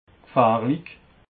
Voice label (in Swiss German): "gfahrlig", alsace